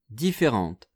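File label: "differentes", French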